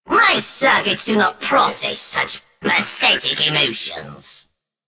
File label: taunt directed; smite; hades; aphrodite